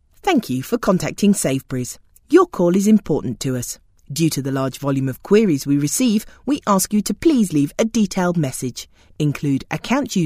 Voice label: telephone ivr